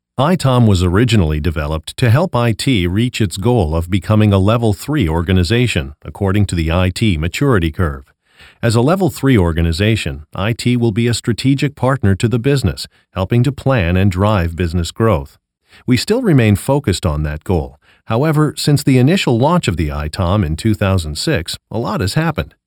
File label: 30s 40s Male Narration